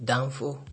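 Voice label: "danfo", Nigerian Pidgin, male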